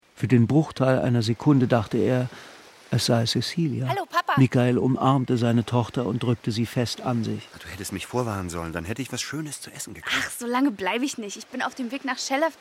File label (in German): hoerspiel; die verblendung; wdr